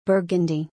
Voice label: "Burgundy", English